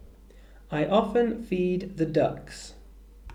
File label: "ducks", English